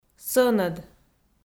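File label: "сӧнӧд", Komi, female; audio dict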